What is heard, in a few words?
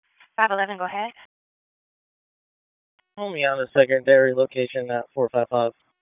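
Raleigh Police Southeast District Dispatch; wake; p25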